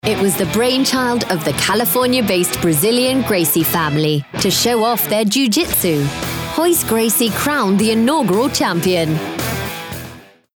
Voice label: Uk accent sample

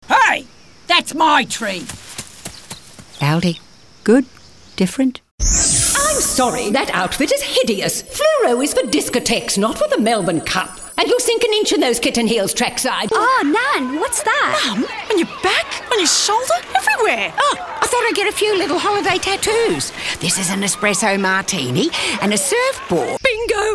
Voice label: character

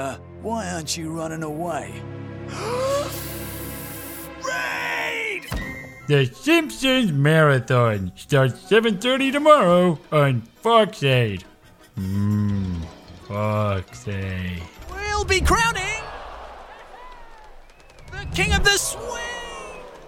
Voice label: character

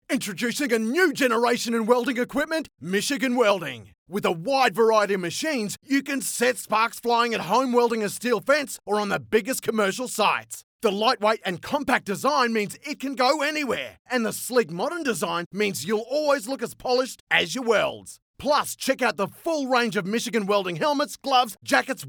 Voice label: Heavy Retail; Total Tools

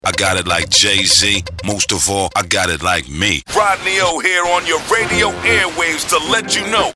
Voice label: Promo

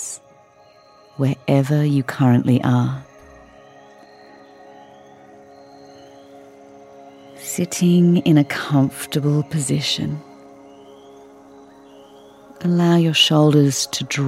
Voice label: MEDITATION

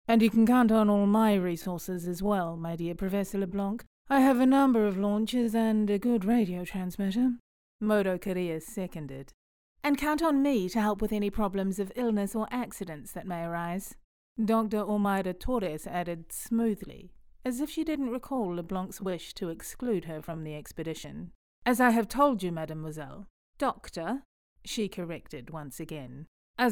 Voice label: Audiobook

